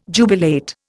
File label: "jubilate", Indian